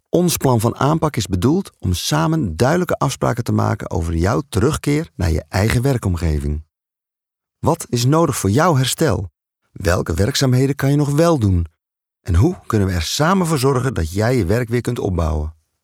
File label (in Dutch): zakelijke commercial, voice-overs